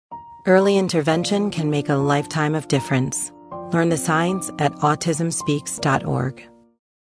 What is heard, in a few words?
Commercial PSA; Autism Speaks